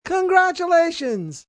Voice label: Congratulations M, male: 'Congratulations', (human voice); Cheers